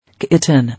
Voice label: "Kitten", English